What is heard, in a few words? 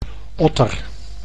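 "otter", Dutch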